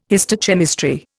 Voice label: "histochemistry", Indian